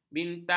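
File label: "bintang", Malay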